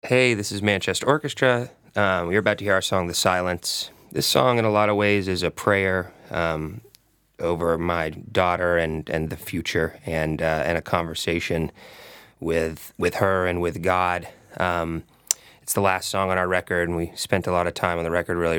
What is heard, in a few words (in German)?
ANSAGE